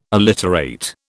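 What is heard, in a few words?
"alliterate", British English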